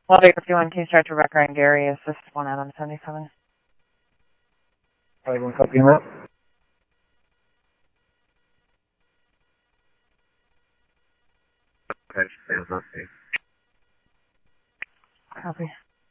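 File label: Simulcast police department, scanner audio trunk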